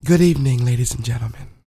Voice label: DIALOG, R&B Dance, FEM. SPOKEN

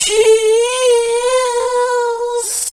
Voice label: vox, drum & bass, 150 BPM; Ueberschall; Drum & Bass Resonance

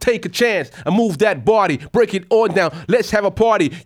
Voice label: RAP PHRASE

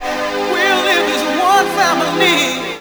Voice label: One Family, Vocals

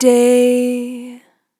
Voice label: "Long Day", Vocal Samples, Words

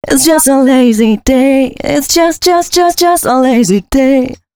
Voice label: female, Dance Ultra Voice hooklines

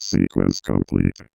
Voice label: Sequence Complete, Robotic Vox; Zero-G Club Techno

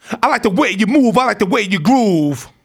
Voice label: RAP PHRASE; RAPPER 1-2

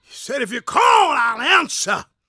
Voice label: PREACHIN, Gospel, PREACHER; ILIO; Vocal Planet

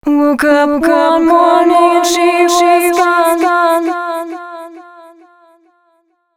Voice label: female a 140, short hooks, Dance Voice; Mutekki Media; Dance Ultra Voice VOL-1

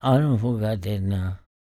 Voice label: talk, spoken, blues soul; Spectrasonics Vocal Planet

